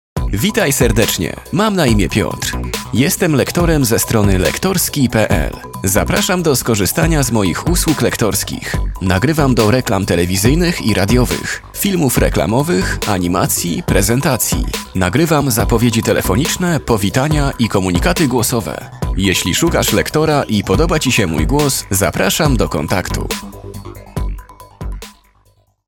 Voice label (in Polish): lektor witam, lektorski